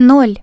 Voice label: "0", Russian Human Voice Tone; LG GX200; Keypad Tones